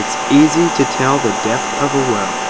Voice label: Noisy Speech